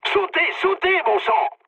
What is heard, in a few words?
bailout mood med, speech fr; War Thunder